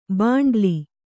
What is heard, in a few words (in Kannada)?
"ಭಂಡೀಲ", Kannada